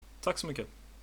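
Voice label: thanks, Swedish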